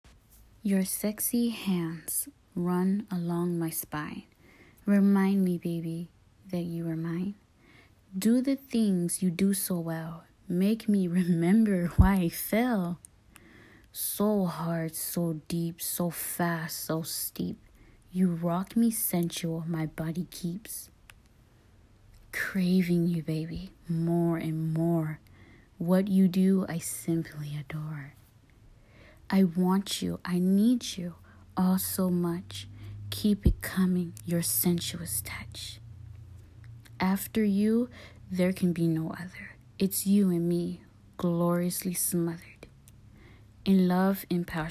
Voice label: F4A Erotic Poem; Remind Me Baby; Evening Readings; Short Poem; Steamy